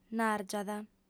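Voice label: "nàrgiat", female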